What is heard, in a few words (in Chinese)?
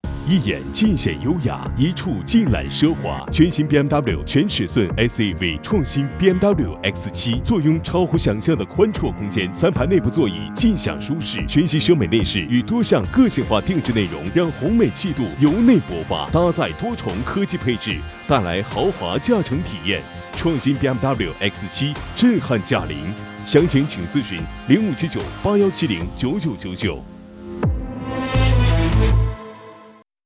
男,广告